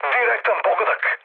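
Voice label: Serbian bomb success mood low, speech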